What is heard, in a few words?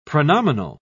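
"pronominal", British English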